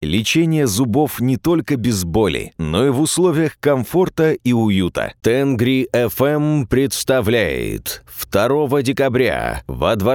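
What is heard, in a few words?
Russian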